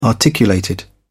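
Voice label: "articulated", English, British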